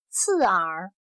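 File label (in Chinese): "刺耳" Mandarin Chinese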